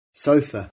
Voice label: "sofa", English

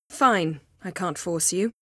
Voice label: female

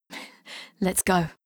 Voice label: Female; Skyrim Special Edition; Dawnguard Beleval

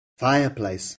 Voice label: "fireplace", English

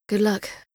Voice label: Female; Skyrim Special Edition; Dawnguard Beleval

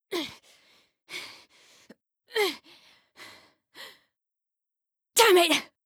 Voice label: Female; Skyrim Special Edition; Dawnguard Beleval

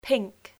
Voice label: "pink", English spelling

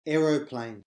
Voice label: "aeroplane", English

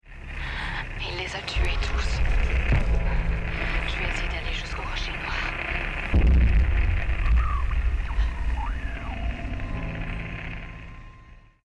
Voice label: woman

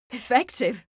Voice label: Female; Skyrim Special Edition; Dawnguard Beleval

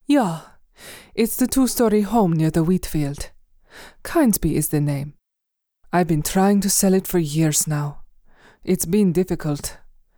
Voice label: Dialogue, Female Nord Middle Aged; Skyrim Special Edition; The Great Village of Kynesgrove

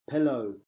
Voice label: "pillow", English

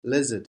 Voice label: "lizard", English spelling

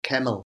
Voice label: "camel", English spelling

